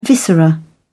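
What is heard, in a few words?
"viscera", English, British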